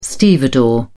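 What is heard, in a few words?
"stevedore", British English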